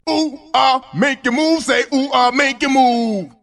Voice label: "ooh ahh make you move say ooh ahh make you move", voice